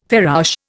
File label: "farouche", Indian